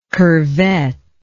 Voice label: "curvette", english

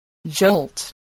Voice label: "jolt", voice

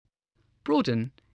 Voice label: "broaden", spelling

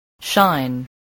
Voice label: "shine", spelling

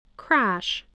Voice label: "crash", spelling

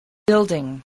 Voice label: "building", spelling

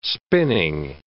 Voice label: "spinning", english

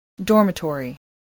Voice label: "dormitory", spelling

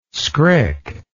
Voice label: "skrik", words

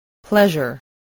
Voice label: "pleasure", English

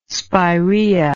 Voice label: "spiraea", english

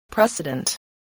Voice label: "precedent", voice, spelling

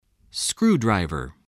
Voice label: "screwdriver", English

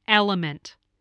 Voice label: "element", English

